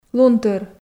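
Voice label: "лунтыр", Komi, female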